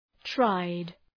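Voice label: "tried", English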